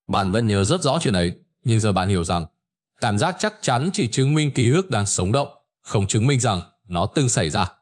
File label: narration